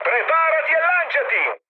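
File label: Italian bailout mood high, speech; War Thunder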